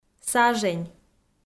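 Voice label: "сажень", Komi, female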